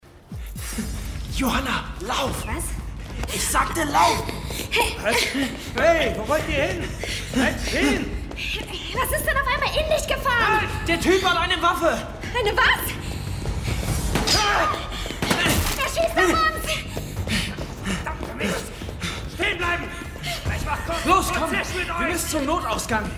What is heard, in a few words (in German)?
hoerspiel; mord in serie atemlos